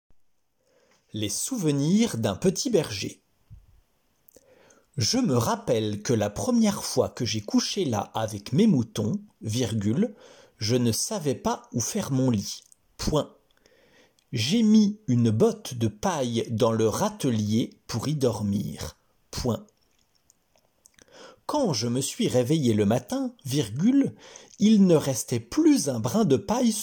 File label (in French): dictee